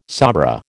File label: "sabra", American